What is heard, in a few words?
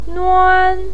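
"nuan" first tone, Mandarin Chinese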